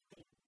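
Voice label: "мылдін", Komi, female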